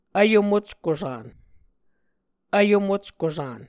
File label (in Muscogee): "vyomockusan", Muscogee